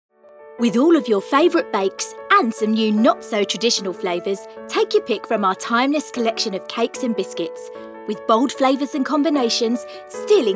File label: Commercial Ad